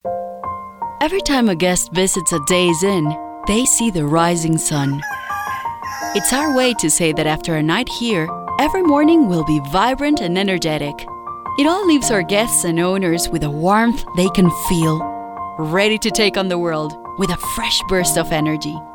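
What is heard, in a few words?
female, Latin American Spanish, accented English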